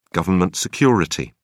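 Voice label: "government security", English, British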